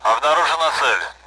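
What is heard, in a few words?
Speech, Russian, AWACS; Messages; BRA; DCS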